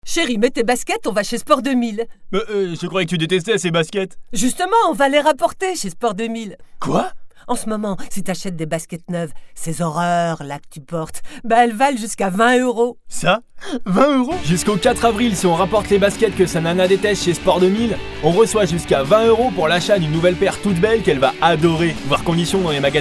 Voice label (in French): Voix jeune adulte Dialogue de situation